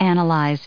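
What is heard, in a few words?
"analyze", US English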